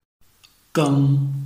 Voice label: "geng1", Chinese